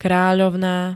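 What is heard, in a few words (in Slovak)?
"kráľovná", Slovak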